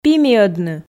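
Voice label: "пимиӧдны", Komi, female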